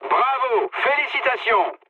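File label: French player killed enemy mood med; War Thunder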